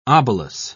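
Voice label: "obolus", British English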